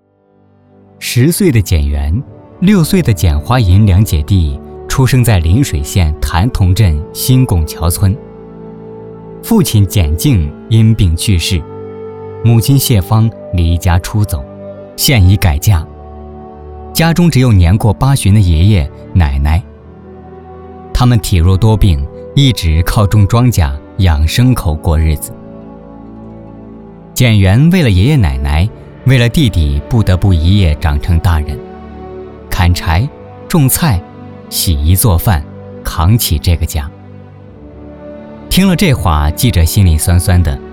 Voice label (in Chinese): 男 纪录片解说词